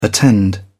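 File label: "attend", English, British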